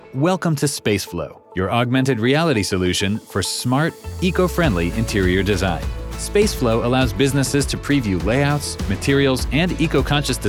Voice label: Corporate; Spaceflow